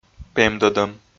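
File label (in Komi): "пемдӧдӧм", Komi, male; audio dict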